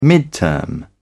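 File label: "midterm", British English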